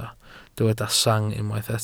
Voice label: "sang", Welsh